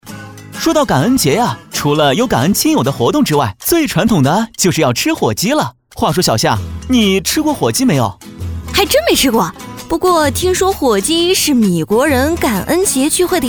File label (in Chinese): 男女对播广告专题